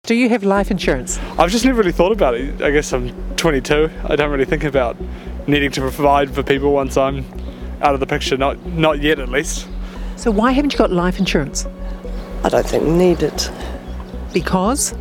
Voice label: vox pops; Insurance